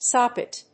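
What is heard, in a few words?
"sawpit", English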